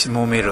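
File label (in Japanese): "kimomeru", 南山形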